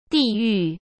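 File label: "地狱", Mandarin Chinese; hsk; chinese-flashcards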